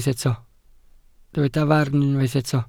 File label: "farn", welsh